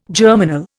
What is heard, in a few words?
"germinal", Indian